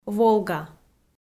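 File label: "Волга", female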